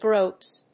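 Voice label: "groats", English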